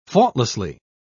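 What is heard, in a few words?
"faultlessly", British English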